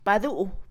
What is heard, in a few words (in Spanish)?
Mixe, Mujer; vereda; Movimiento; INALI